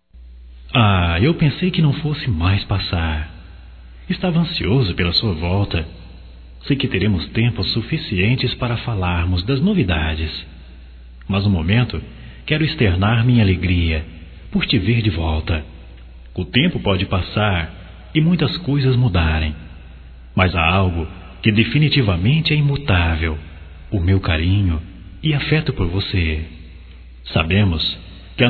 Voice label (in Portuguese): Boas Vindas Geral Masculino, Só Voz; Telemensagens; Telemensagens 2017